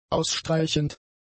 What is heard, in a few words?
"ausstreichend", German; adjectives